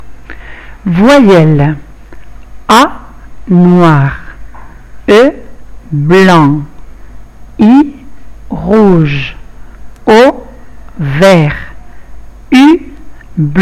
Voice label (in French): Poesie VOYELLES